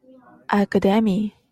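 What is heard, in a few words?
"academy", Japanese, female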